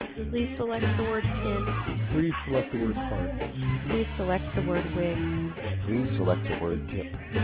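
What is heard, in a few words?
EVS narrowband 13200 club; EVS13,200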